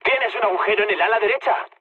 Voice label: right wing, mood low, speech; War Thunder